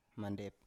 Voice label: "mandep", Biak